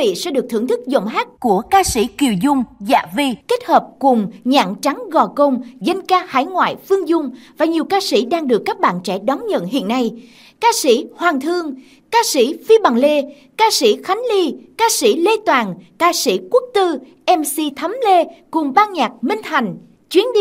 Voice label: Thu Am Trailer Mini Show Giong MC nu mien Nam hap dan Radio Ad; CHUYEN DI ANH SANG

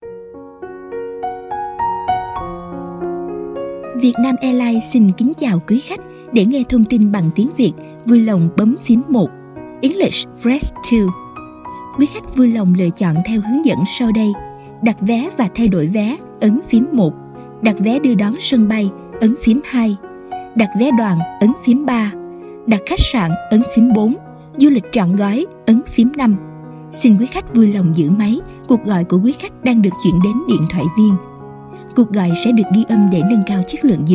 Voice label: Thu am tong dai dien thoai; VIET NAM AIRLINES

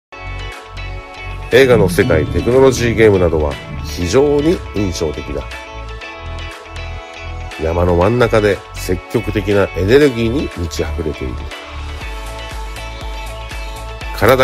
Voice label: Tieng Nhat Giong Nam Ban Ngu

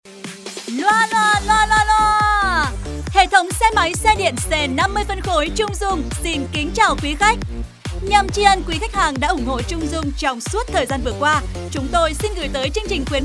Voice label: Radioad, Phat loa, Xe dien

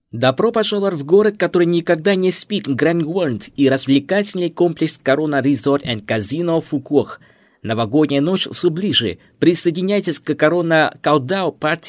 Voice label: Tieng Nga; Corona Resort Casino; thu am da ngon ngu

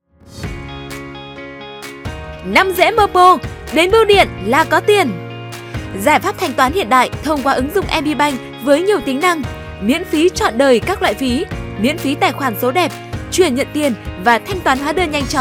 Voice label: UNG DUNG, GIONG NU MIEN BAC; MB BANK

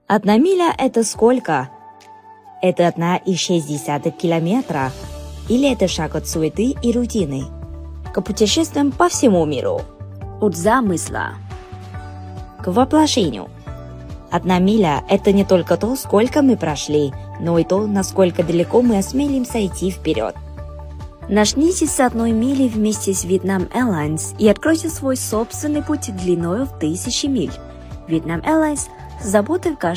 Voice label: Thu Am Tieng Nga; Vietnam Airlines

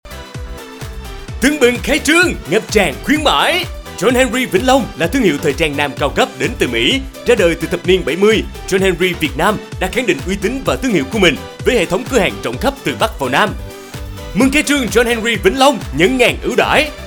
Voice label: Thu am Phat Loa; Cua hang thoi trang Nam John Henry

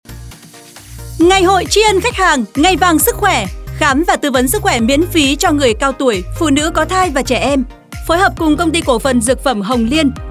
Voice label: thu am quang cao; Nha Thuoc Go Cong

